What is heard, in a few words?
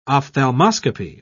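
"ophthalmoscopy", British English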